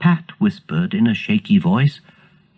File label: pat whispered in a shaky voice